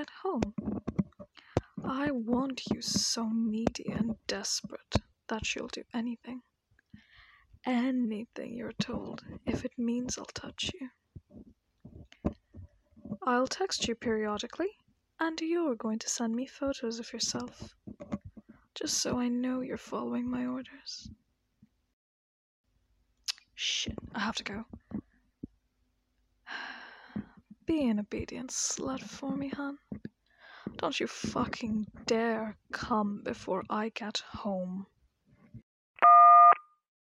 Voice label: Quick Voicemail; For a Needy Baby